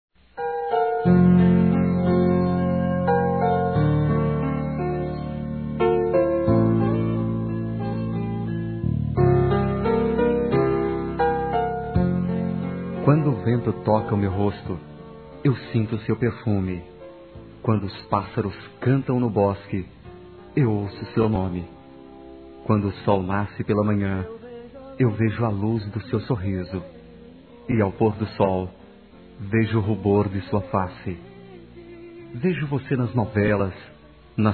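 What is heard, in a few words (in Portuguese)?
ROMANTICA, Telemensagens, Românticas masculino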